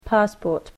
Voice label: "passport", English